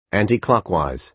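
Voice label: "anticlockwise", American English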